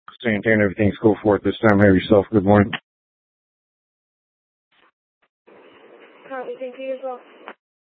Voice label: Court Security Dispatch, scanner; Maricopa County (P25) - Thompson Peak